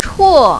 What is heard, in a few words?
"chuo2", mandarin, female